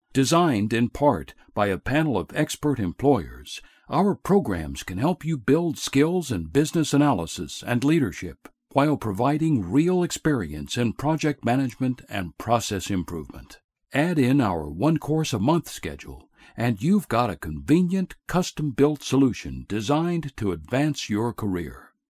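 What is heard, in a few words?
American English, male, voice over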